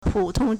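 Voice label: "pu3tong1", Mandarin Chinese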